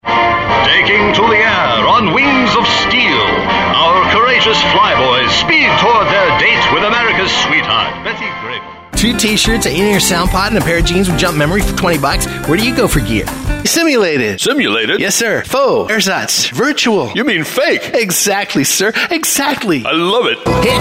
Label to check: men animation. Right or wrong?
Right